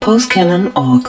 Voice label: Pulse Cannon, english hud

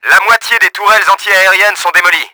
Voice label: FLAK, french; Battle Engine Aquila; XBOX

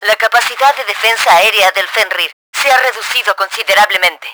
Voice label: launcher down, Spanish; Battle Engine Aquila; XBOX

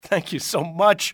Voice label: thank, english male; XBOX; civ

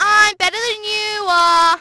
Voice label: better, Brit; Splashdown; XBOX

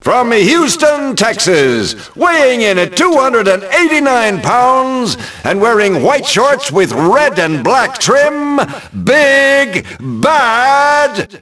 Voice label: announce, ENGLISH; Rocky; XBOX

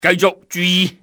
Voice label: keep looking, enemy cantonese male; XBOX

